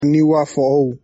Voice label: niuafoou

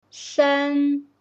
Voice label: 03 Mandarin; 三 [叁] (sān; Mandarin Chinese

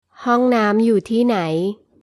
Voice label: toilet, thai

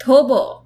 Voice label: stop, gujarati

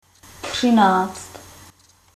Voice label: "thirteen", czech

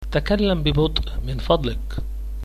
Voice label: "slowly", Arabic

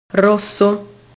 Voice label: "rosso", Italian

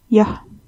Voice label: "yes" Estonian

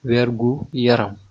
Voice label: cheers, wolof

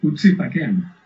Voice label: "leave me alone", Basque

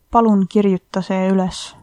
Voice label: write, estonian

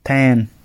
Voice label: fire, gwentian

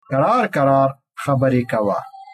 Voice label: slowly, pashto

